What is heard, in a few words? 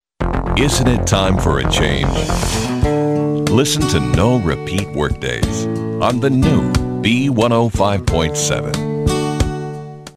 Smooth & Soft; Radio; Soft Rock; WYXB - B105.7; 10TV